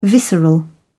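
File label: "visceral", English, British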